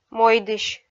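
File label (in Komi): "мойдысь", Komi, female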